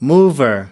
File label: "mover", us